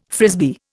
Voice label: "Frisbee", Indian

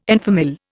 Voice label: "en famille", Indian

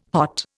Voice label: "hot", Indian

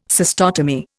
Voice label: "cystotomy", Indian

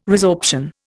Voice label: "resorption", Indian

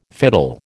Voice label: "fiddle", American

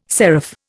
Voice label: "serif", Indian

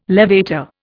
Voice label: "levator", Indian

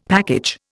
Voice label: "package", Indian; Dictionary

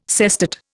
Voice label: "sestet", Indian

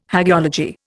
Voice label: "hagiology", Indian

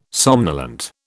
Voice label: "somnolent", British

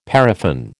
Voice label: "paraffin", American

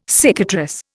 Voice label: "cicatrice", Indian

